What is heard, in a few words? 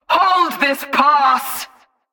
Female Chokepoint Line, dialogue